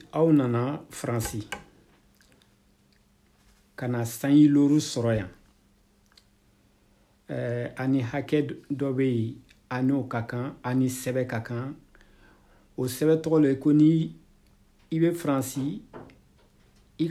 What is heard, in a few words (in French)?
malinke régularisation